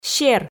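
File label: "сер", Komi, female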